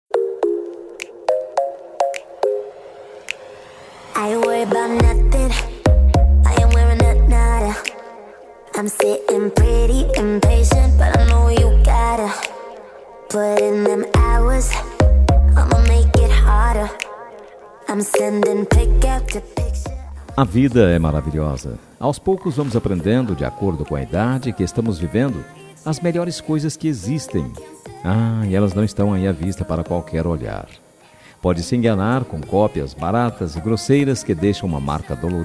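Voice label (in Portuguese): birthday ADOLESCENTE male, telemensagem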